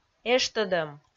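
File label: "эштӧдӧм", Komi, female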